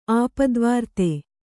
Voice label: "ಆಪ್ತವರ್ತಿ", Kannada

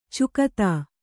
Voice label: "ಚುಕ್ತಾ", Kannada

